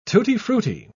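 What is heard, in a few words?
"tutti-frutti", English, British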